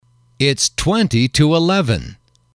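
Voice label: "it's twenty to eleven", English, male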